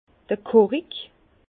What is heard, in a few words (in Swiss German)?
"korig", Bas Rhin